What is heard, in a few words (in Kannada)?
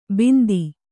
"ಬಿಂದಿ", Kannada